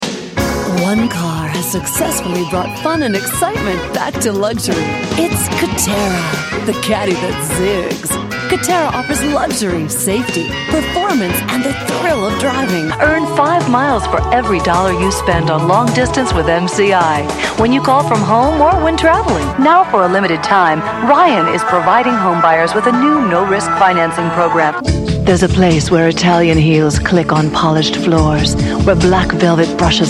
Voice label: commercials